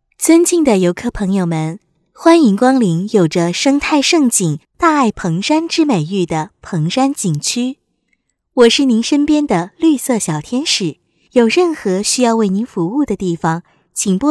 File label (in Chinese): 女--中文专题-景区